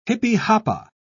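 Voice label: "jipijapa", British English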